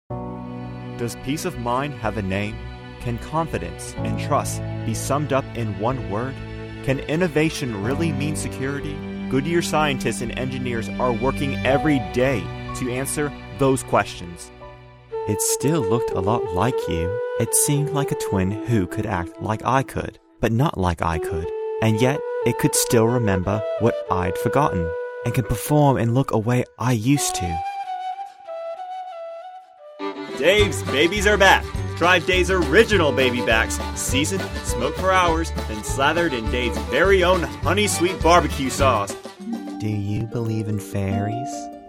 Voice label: Commercial Demo